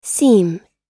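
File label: "seem", American English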